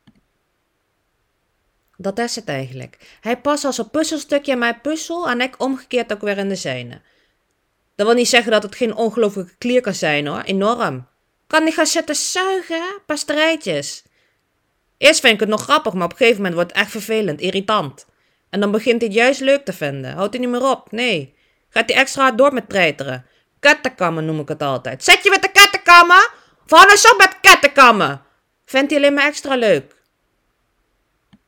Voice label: Arnhems accent

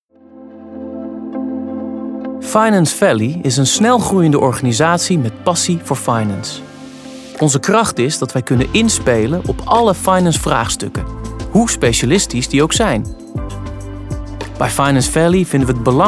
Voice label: Voice Zakelijk

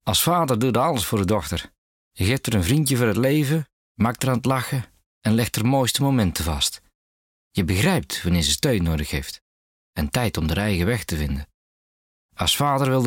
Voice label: Stem Demo Brabants

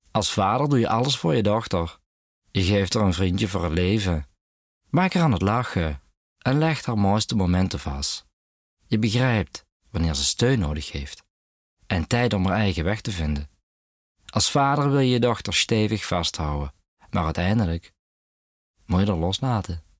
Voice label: StemDemo Limburgs

